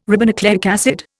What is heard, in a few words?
"ribonucleic acid", Indian